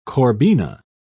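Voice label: "corbina", British English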